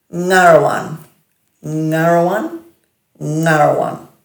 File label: "ngarawan", Dhurga